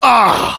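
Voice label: negative vocalization, French; Demoman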